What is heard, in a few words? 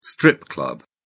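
"strip club", British English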